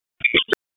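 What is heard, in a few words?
Raleigh Police North District Tactical, p25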